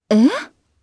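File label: Vox, voices, Japanese; Shamilla; kingsraid audio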